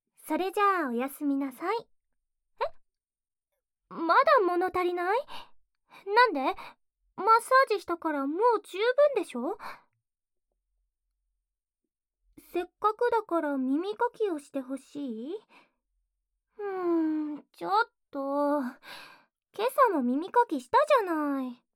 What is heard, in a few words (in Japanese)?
耳かき(右耳), ASMR